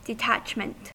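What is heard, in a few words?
"detachment", US English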